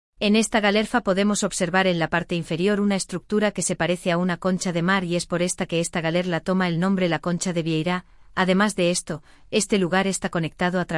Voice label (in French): la coquille saint jacques espagnol